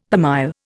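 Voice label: "per mille", Indian English; Dictionary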